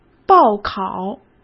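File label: "报考", Mandarin Chinese; hsk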